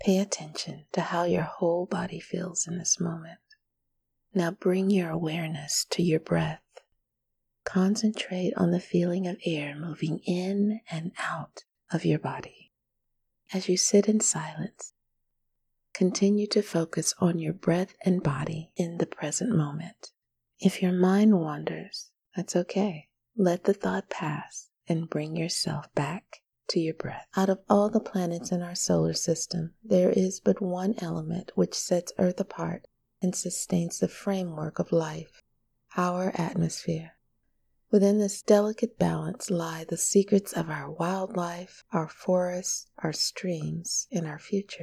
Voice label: Velvet Voice Narrations; The Velvet Voice